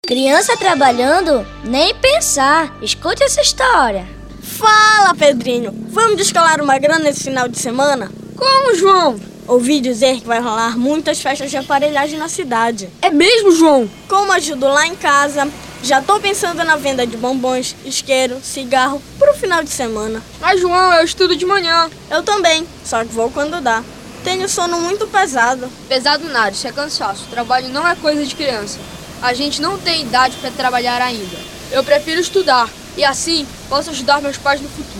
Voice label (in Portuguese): Spot; Urbano Trabalho Infantil